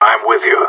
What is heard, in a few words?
following, radio; GSG9